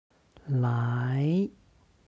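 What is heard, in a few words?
"lai", Chinese; 来; 来る; HSK Level One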